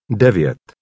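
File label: "devjet", Czech, male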